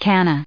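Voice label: "canna", US English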